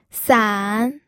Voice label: "san3", pinyin

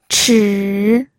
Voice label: "chi3", pinyin

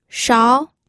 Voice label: "shao2", pinyin

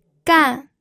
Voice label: "gan4", pinyin audio

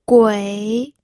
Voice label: "gui3", pinyin audio

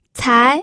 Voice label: "cai2", pinyin

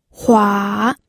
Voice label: "hua3", pinyin audio

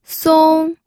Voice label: "song1", pinyin

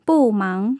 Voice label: "bu4 mang2", tone changes

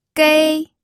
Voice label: "gei1", pinyin audio

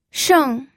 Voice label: "sheng4", pinyin

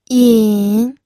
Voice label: "yin3", pinyin

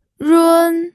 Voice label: "run1", pinyin

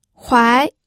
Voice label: "huai2", pinyin audio

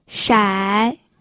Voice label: "shai3", pinyin

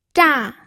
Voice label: "zha4", pinyin

